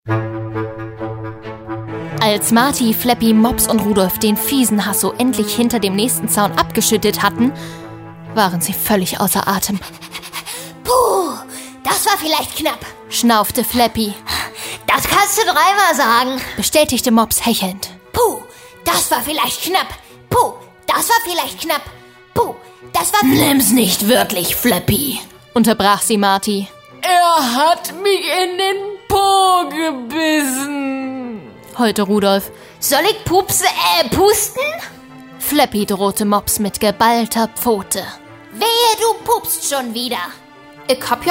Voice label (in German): Hörspiel